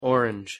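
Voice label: "orange", English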